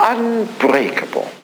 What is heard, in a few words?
LoFi Words, LoFi Vocals